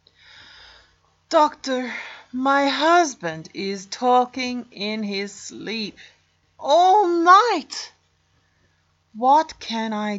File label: sleep talking husband